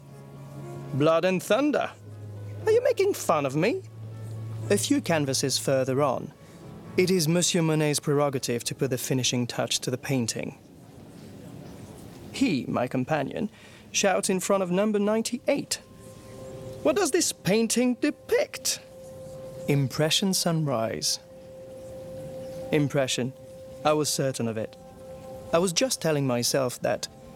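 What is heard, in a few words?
English docu soft French accent; Arte; Japanism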